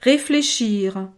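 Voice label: "reflechir", French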